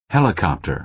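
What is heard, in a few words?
"helicopter", American English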